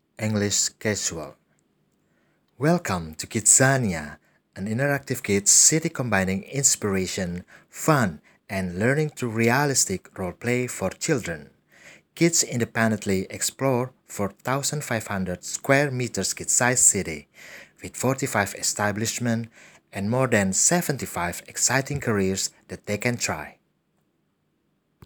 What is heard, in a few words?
English Casual, Deep & Mysterious